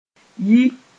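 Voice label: "yi", Mossi; go out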